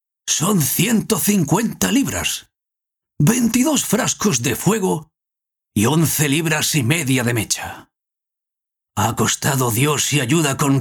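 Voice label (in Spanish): generico España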